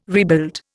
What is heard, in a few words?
"rebuild", Indian